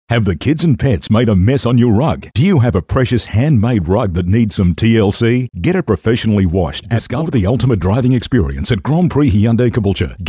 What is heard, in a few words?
Hard Sell